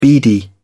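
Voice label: "beady", English, British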